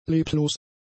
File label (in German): "leblos", German; adjectives